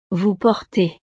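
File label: "vous portez", French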